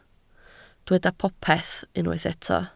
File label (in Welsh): "popeth" Welsh